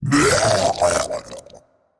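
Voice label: Big Death voice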